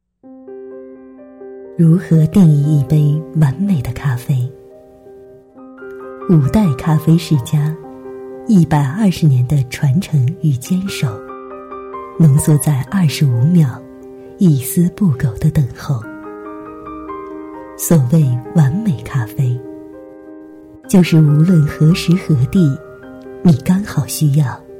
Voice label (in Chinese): 咖啡 温柔 深沉